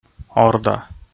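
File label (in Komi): "орда", Komi, male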